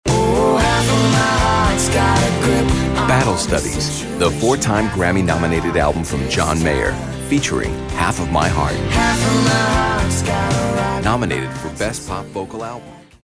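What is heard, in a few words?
announcer, voice-over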